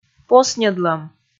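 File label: "посньӧдлӧм", Komi, female; kpv audio dict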